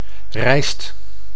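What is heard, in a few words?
"rijst", Dutch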